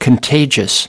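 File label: "contagious" US English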